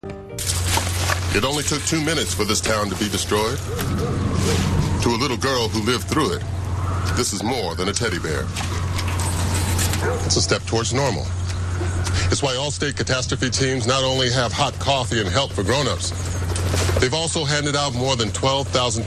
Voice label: commercial; ludicrous allstate